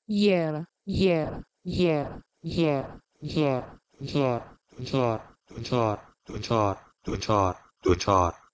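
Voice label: Proto-Indo-European yeh1ro to Persian dochyar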